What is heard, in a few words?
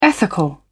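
"ethical", British English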